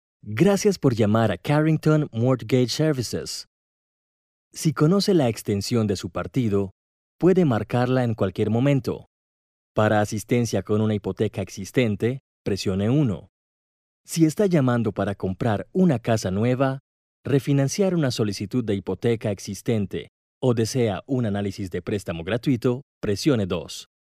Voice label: IVR Latam Spanish